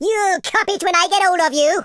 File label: "go away", Welsh; speech